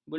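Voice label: "belungsong", Malay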